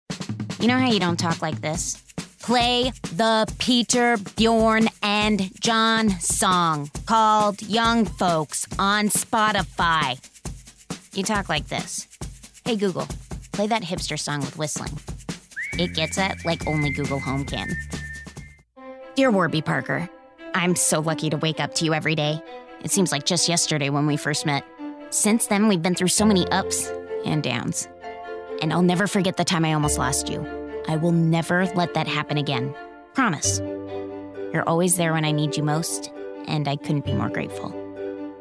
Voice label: Commercial

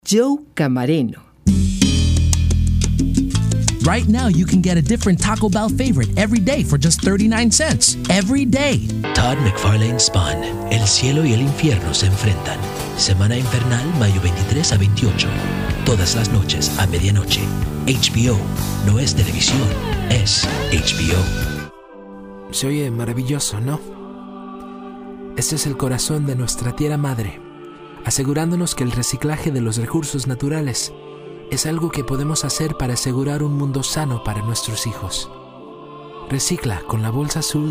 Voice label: Spanish, commercial

